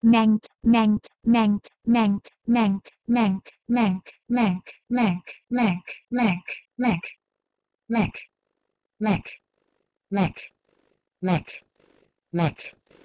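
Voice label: Proto-Indo-European menk to Sanskrit macate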